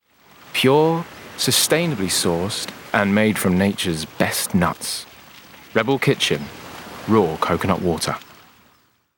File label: VO Reel; Rebel Kitchen